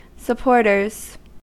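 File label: "supporters", US English; pronunciations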